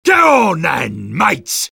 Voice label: battle cry; sniper